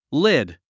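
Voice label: "lid", English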